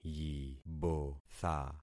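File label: "yibotha", concatenated syllables; Affixing experiment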